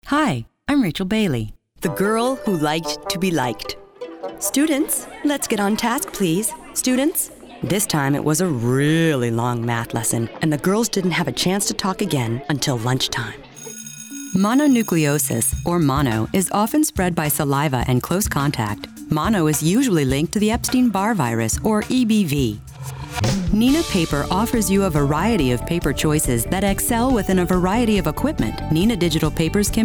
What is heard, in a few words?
Narration, voiceover women